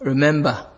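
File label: "remember", Nigerian Pidgin, male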